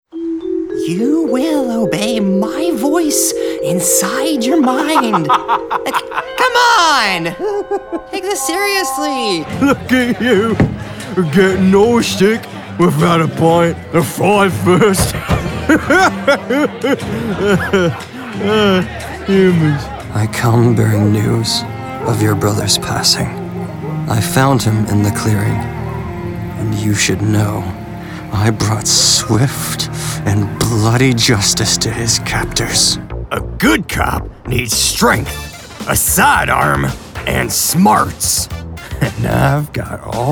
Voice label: vo character